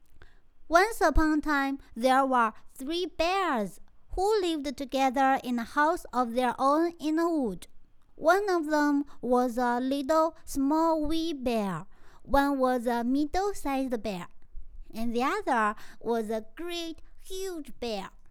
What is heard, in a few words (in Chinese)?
英语童话故事旁白